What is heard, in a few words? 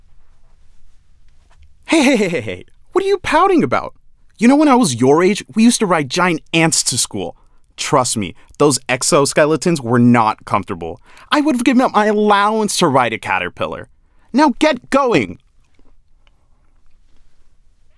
goofy dad, voice